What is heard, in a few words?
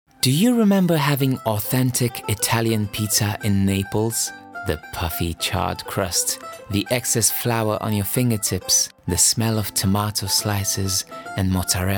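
RO AccEN, Romanian accented English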